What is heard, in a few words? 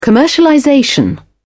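"commercialization", English, British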